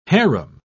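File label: "hareem", British English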